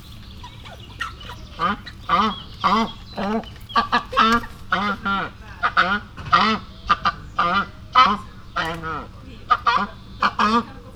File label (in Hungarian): jobbról halk beszéd, szent íbisz; allathangok; veszpremizoo 2013